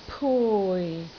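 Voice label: "pui4", Cantonese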